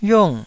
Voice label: "yiung4", taiwanese Syllables